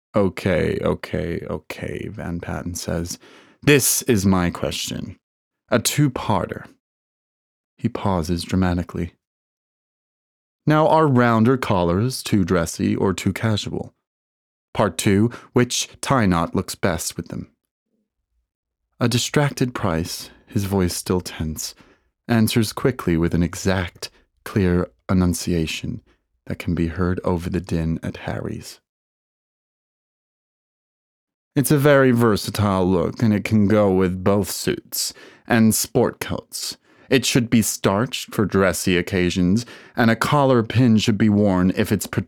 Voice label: Audiobook American Accent

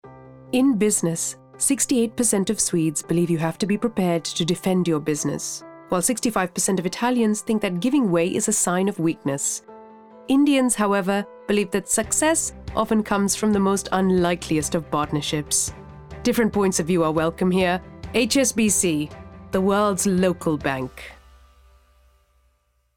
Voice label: Indian; HSBC

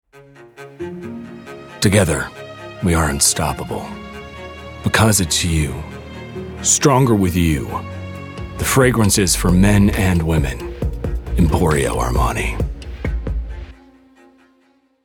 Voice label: Ad; Armani

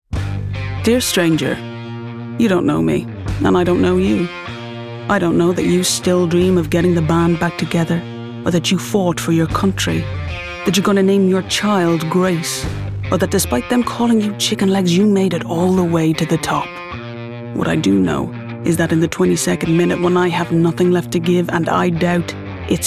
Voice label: Commercial; Pelaton

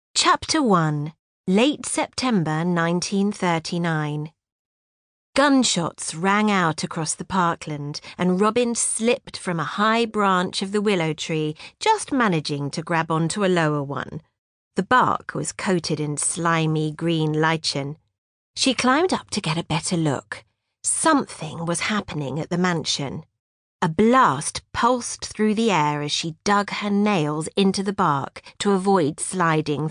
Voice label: I Spy audiobook

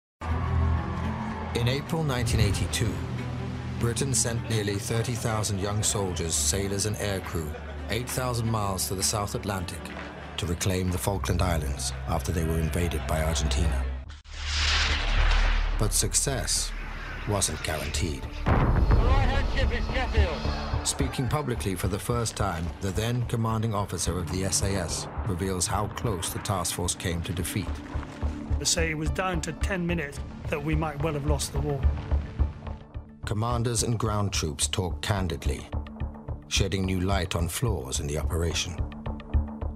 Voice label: Voice over; Falklands War

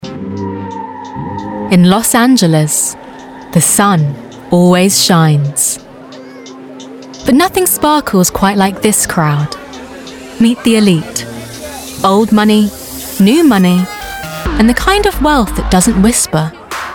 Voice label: narration; Empire

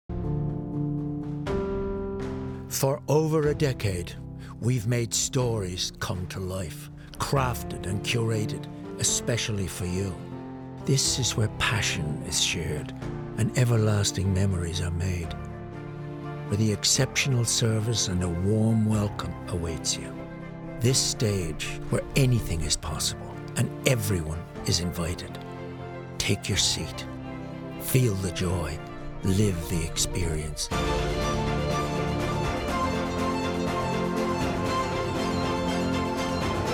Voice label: Theatre Trailer; Bord Gais Theatre

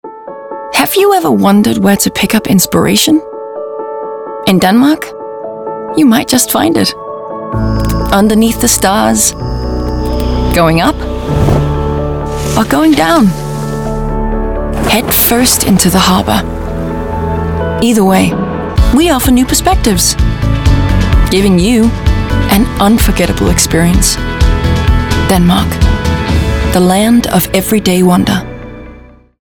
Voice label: Danish Accent; Visit Denmark

